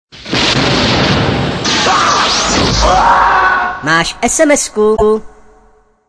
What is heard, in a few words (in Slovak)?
sms hlásky cz